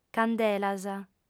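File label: "candelas", female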